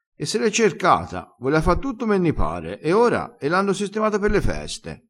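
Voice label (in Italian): "sistemato", vocabolario dialettale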